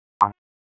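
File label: Dispatch, p25; WSO DISP 1; wake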